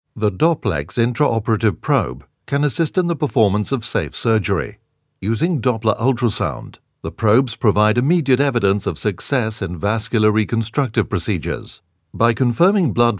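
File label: uk medical, British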